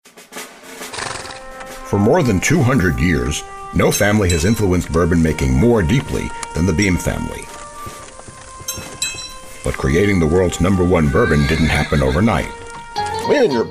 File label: commercials